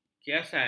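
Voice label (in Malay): "kiasan", Malay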